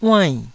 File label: "uainn4", taiwanese Syllables